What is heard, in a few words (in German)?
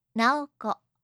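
"naoko", japanische Mädchennamen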